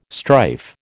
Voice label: "strife", American